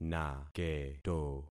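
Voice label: "naketo", concatenated syllables; Affixing experiment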